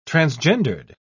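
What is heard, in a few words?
"transgendered", British English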